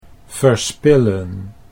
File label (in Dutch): "verspillen", Dutch; 'to waste'